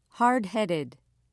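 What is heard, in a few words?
"hardheaded", British English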